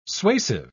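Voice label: "suasive", British English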